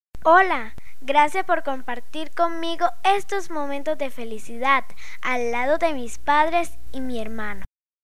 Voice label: kid colombian voiceover locutora colombiana infantil